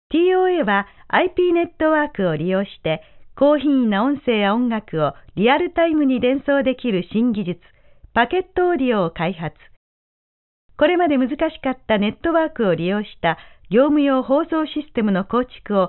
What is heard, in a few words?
female short; network